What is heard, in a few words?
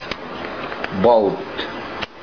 "baut", Tobian; nose